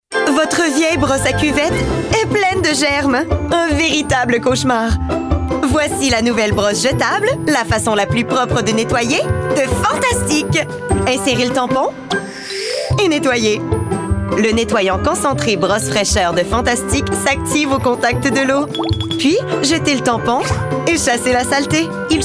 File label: voice acting